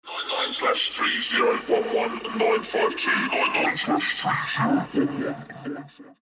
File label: Whisper; infiltrator